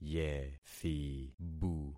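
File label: "yethibu", concatenated syllables; Affixing experiment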